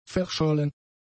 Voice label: "verschollen", German; adjectives